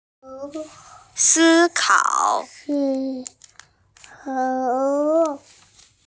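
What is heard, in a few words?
"si1kao3", Mandarin Chinese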